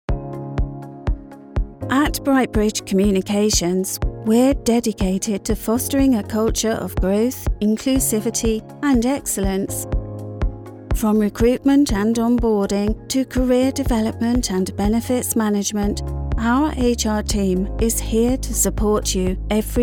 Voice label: corporate video; Diversity